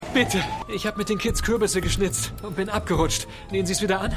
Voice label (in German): Zombie; AtlantaMedical